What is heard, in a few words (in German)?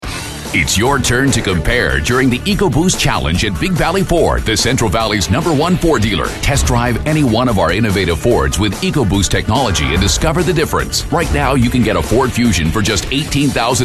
TV Werbespots Jugendfrei; NATIVE PROFISPRECHER